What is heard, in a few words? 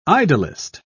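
"idyllist", English, British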